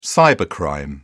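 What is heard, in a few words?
"cybercrime", British English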